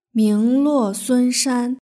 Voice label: "mingluosunshan", Chinese

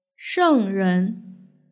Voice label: "shengren", Chinese

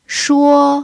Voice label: "shuo1", pinyin

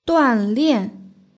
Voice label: "duanlian", Chinese; hsk6

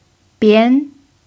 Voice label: "bian2", pinyin

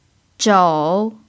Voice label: "zhou3", Chinese pinyin

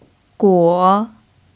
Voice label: "guo3", chinese pinyin

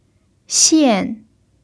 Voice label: "xian4", Chinese pinyin

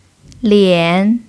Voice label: "lian3", chinese pinyin

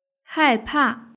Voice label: "haipa", Chinese

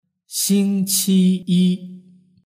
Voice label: "xingqiyi", Chinese

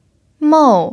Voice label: "mou4", chinese pinyin

